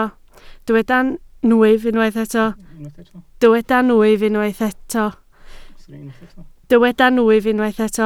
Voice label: "nwyf", Welsh